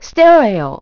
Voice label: stereo, announce voice; Nintendo; July 2020 Gigaleak

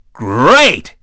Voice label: dj nice; DJ VOICE; Nintendo; July 2020 Gigaleak

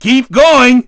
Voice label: dj rank up; DJ VOICE; Nintendo; July 2020 Gigaleak

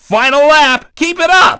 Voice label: dj lap final; DJ VOICE; Nintendo; July 2020 Gigaleak

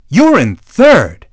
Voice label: dj rank down 3rd; DJ VOICE; Nintendo; July 2020 Gigaleak

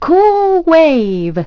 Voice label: cool wave, announce voice; Nintendo; July 2020 Gigaleak

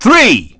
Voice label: dj signal, DJ VOICE; Nintendo; July 2020 Gigaleak

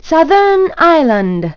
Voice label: "southern island", announce voice; Nintendo; July 2020 Gigaleak

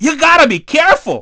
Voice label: dj crash heavy; DJ VOICE; Nintendo; July 2020 Gigaleak

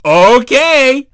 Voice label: dj buoy, DJ VOICE; Nintendo; July 2020 Gigaleak

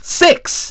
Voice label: dj, voice; Nintendo; July 2020 Gigaleak; VSLAP VOICE

